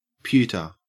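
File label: "pewter", Australian English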